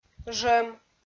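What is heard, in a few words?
"жӧм", Komi-Zyrian, female; audio dict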